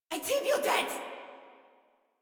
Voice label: Cover Me Female; Warframe; Modular Corpus Female